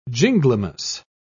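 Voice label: "ginglymus", English, British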